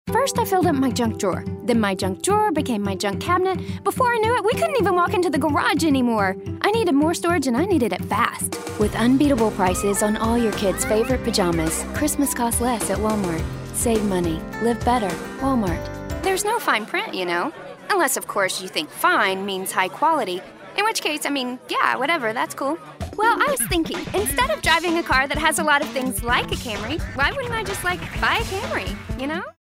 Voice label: Conversational Real People